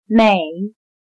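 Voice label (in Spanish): "每", chino mandarin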